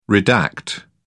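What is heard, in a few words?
"redact", English, British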